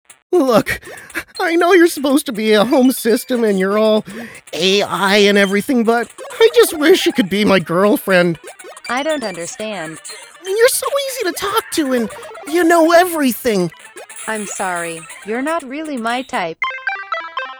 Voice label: nerdy teen